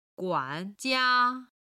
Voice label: "guan3 jia1", chinese

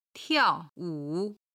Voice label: "tiao4 wu3", Chinese

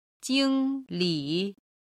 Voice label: "jing1li3", chinese

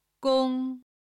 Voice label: "gong1", chinese

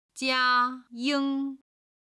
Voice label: "jia1 ying1", Chinese

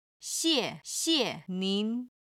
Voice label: "xie4 xie5 nin2", chinese

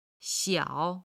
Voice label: "xiao3", chinese

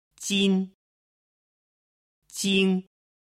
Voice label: "jin jing", Chinese

